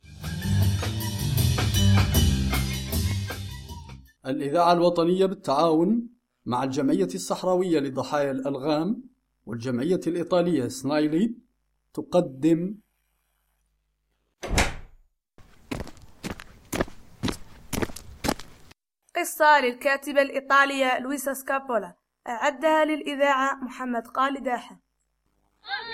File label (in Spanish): publicidad